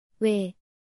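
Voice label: "ㅙ", Korean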